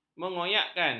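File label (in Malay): "mengoyakkan", Malay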